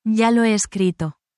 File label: spanish, spanish phrases